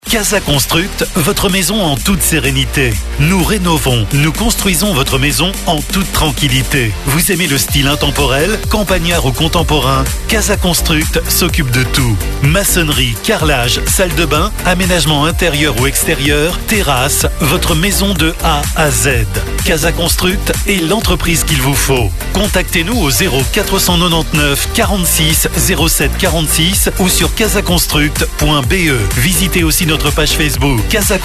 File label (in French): Voix Homme, voix off